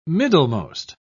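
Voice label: "middlemost", British English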